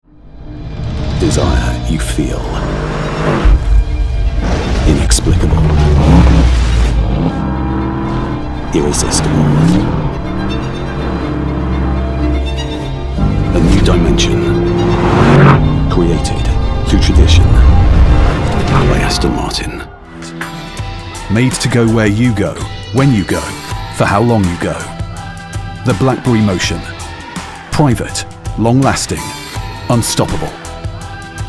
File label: Commercial Voice